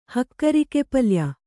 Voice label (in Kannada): "ಹಕ್ಕರಿಕೆಪಲ್ಯ", Kannada